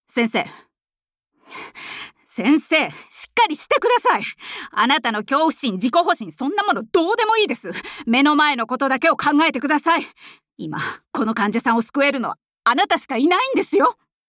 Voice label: 50代看護師長

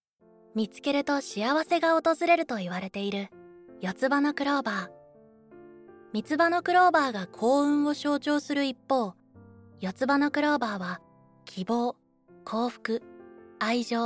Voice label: NA 雰囲気柔らかめ BGon

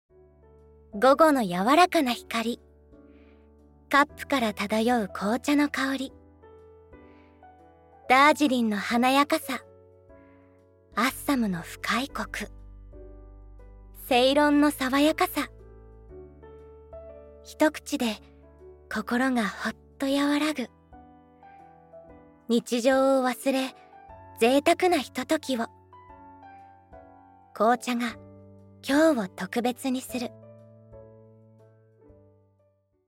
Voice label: NA 飲料CM BGon

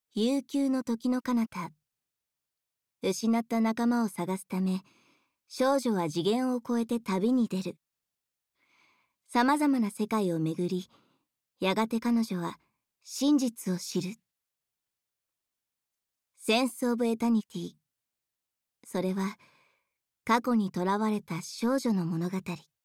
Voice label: ナレーション

